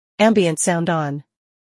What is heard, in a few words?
Ambient Sound On; en US